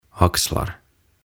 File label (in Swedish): "Axlar" "Olkapäät"